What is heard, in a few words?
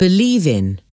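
"believe in", English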